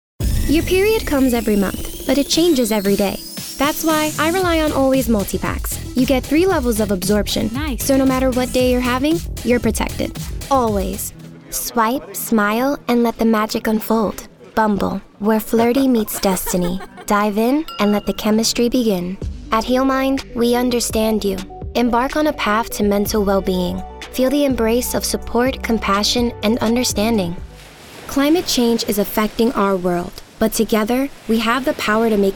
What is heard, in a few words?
Commercial; voice over